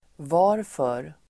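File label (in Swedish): "varför", swedish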